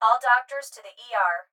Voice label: Hospital Pa Voice; Blastwave